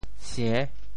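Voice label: "sie~6", Teochew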